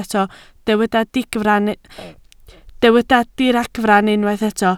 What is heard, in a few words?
"diragfarn", Welsh